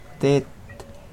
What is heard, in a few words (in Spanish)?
Nawat palabras piedra; pipil